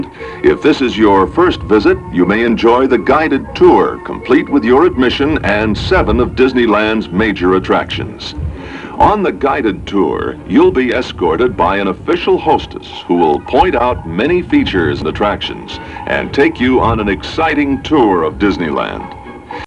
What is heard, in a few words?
1974 Ticketbooth Guided tour pitch